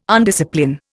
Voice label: "undiscipline", Indian

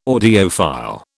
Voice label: "audiophile", British

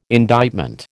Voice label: "indictment", American

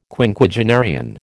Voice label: "Quinquagenarian", American

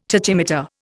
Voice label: "tachymeter", Indian

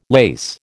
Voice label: "lace", American

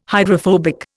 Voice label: "hydrophobic", Indian

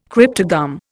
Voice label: "cryptogam", Indian

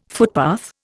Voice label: "footpath", Indian

